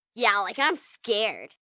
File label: Like Im Scared, Attitude, 1 girl, 9 yrs; VoiceBox